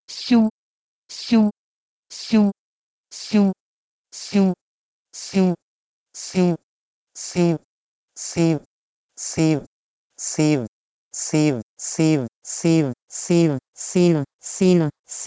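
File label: Proto-Indo-European syuh1 to Urdu siina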